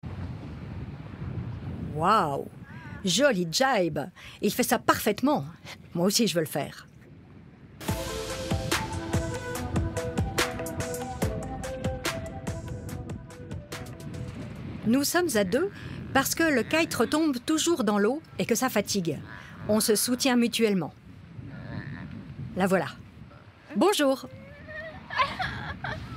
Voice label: Docu voix off; RTS

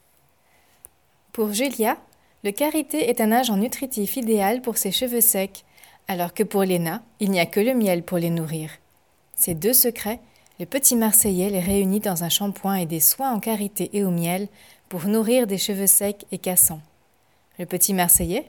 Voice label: Essai pub TV

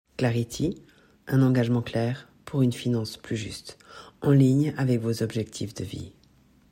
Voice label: voix pub

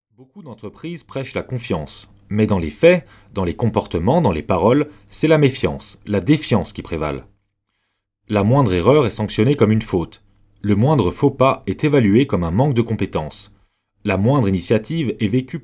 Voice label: livre audio

